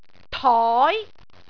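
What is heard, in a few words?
"toi2", Cantonese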